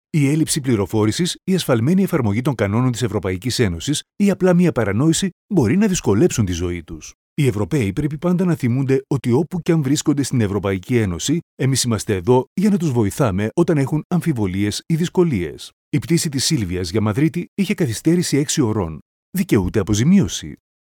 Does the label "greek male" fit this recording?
Yes